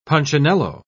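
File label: "punchinello", British English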